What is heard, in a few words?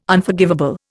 "Unforgivable", Indian